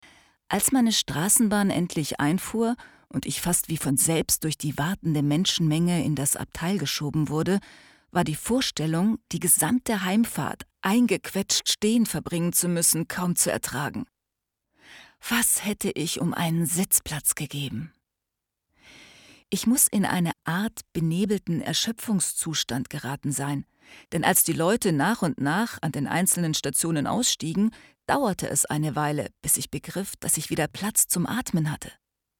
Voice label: Hörbuch Weihnachtsgeschichte

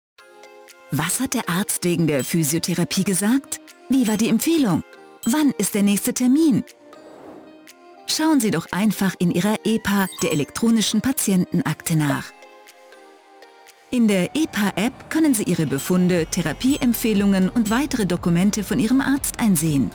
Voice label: Erklarfilm

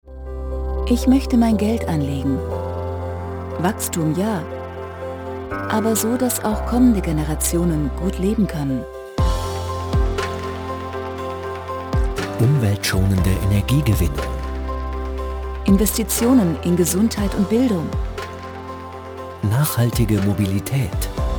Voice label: Imagefilm; Union Investment

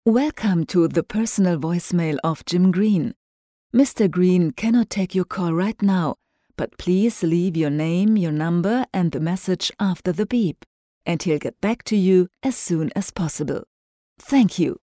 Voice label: Telefonansage englisch

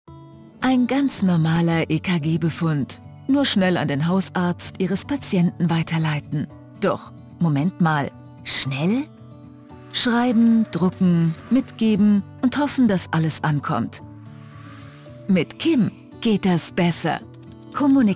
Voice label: Erklärfilm; KIM

